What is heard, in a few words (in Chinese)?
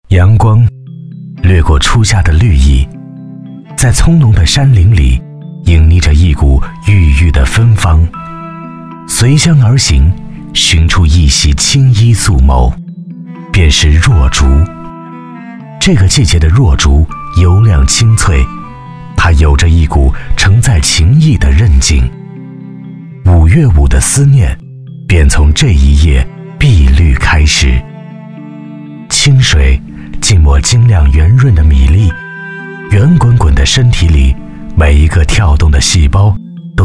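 男S347 国语 男声 广告-食品广告-成熟磁性 大气浑厚磁性 沉稳; 舜华鸭肉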